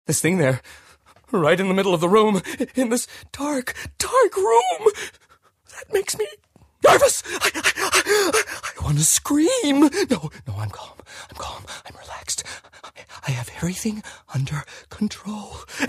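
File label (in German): US English, Schauspiel, Variabel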